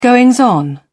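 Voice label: "goings on", British English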